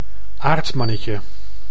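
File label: "aardmannetje", Dutch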